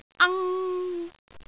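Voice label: "ang1", Cantonese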